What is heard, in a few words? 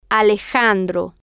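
"alejandro", Spanish, female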